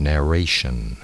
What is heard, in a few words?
narration